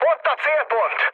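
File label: Hungarian near waypoint mood med, speech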